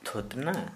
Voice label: "Cheek", Santali

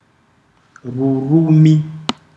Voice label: "tongue anatomical", ndau

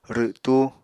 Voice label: "rwtú", Apatani; Material Culture

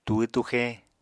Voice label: "túhw túxe", Apatani

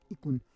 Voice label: "ikun", Roon

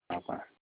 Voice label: chin, Olukumi

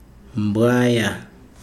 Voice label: "dog", "mbwaya", Chikunda

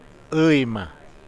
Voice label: "man hombre", uitoto

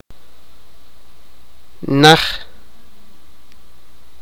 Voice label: sipakapense; far

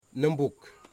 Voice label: "husband", Wamut

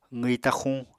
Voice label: "qwi taxuñ", Apatani

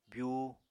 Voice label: "byuu", Apatani

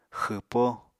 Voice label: "xwpó", Apatani

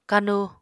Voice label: "kanu", Apatani

